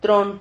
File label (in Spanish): "tron", Silabas